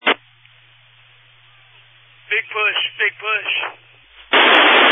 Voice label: Family Radio Service (FRS)